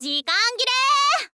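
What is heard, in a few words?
"zikangire", Japanese, girl; voice; info girl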